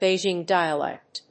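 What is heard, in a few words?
"Beijing dialect", English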